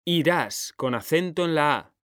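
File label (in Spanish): "irás", Spanish, male; mekanta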